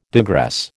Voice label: "digress", American

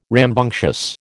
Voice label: "rambunctious", American

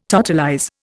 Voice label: "totalize", Indian

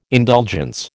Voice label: "indulgence", American

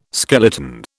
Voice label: "skeleton", British

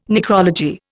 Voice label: "necrology", Indian

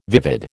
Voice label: "vivid", American

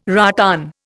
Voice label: "rattan", Indian

